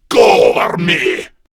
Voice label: Cover Me, Male; Warframe; Grineer Male B; Battle Barks

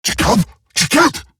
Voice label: Surprised Eximus Corpus Crewman; Warframe; Battle Barks

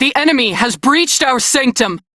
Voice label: Crew Enemy Spot, Female; Warframe; Railjack Crew; New Loka

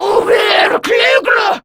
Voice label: Surprised, Female; Warframe; Grineer Female; Battle Barks

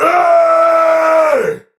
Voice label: Attack Effort Heavy; Tau; Dax; Battle Barks

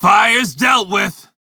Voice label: crew fix fire, male; Dialog; en; Warframe; Railjack Crew; Red Veil

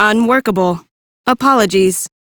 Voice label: Reject, Female; en; Warframe; Railjack Crew; Cephalon Suda

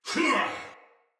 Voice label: Attack, Barks Battle Soldier; Sinecure Soldier

